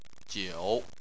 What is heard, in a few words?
"jiu3", Chinese